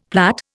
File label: "plait", Indian; Dictionary